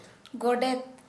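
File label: shaman, Santali, female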